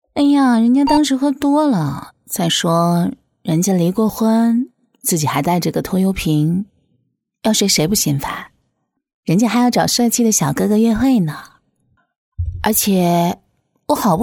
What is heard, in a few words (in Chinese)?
女, 角色, 妖娆女人